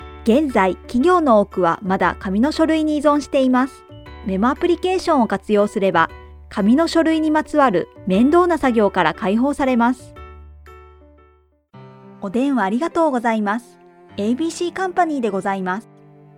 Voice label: Japanese VO voice sample